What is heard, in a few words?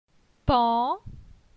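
"Pan", French; phonetique; la syllabe an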